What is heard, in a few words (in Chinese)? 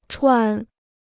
"喘", Chinese